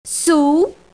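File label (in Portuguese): "sul", Portuguese; GEOGRAFIA; bussola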